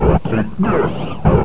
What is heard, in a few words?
announce, combine soldier, far; hl2